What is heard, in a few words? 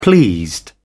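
"pleased", British English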